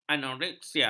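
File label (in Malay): "anoreksia", Malay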